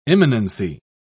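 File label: "immanency", British English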